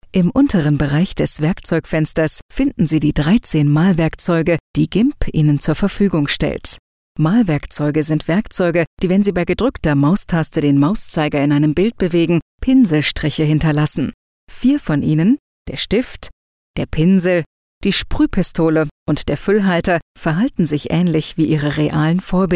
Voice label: Kommentar, Neutral

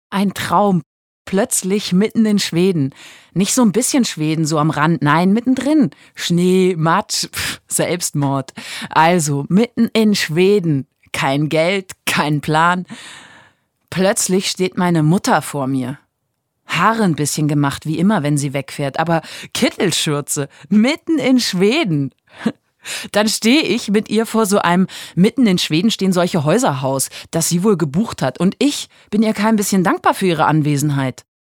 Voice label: Schauspiel, Neutral

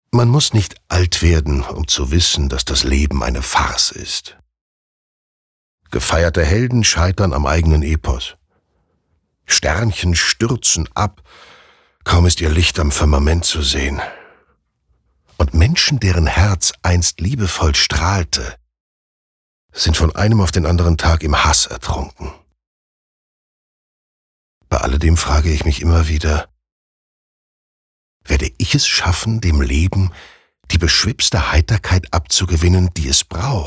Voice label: Weltschmerz Neutral

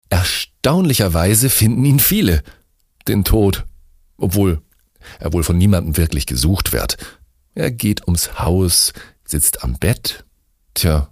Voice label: Dynamisch; Fremdtake

